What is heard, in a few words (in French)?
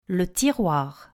"tiroir" French; house in french